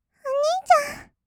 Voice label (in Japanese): "おにいちゃん", kawaii voice; ASMR; 二人称; 1200 kawaii voice!!